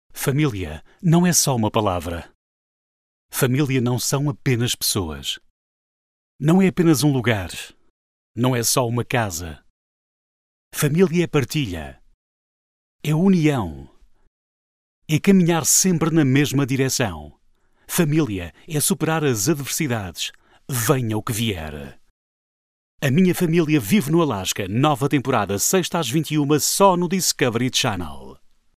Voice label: Dry

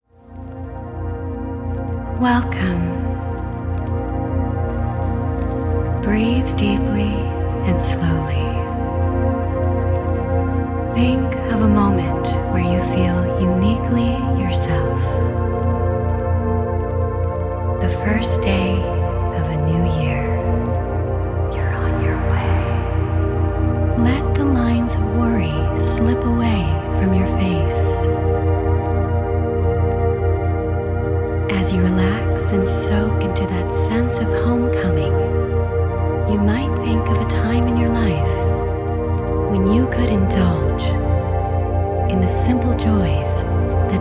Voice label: Meditation Guide

